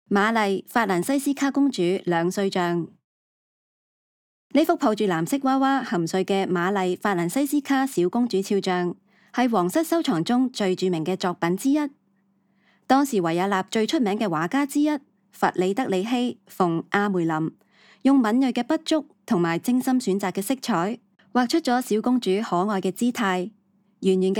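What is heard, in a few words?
Cantonese, audio guide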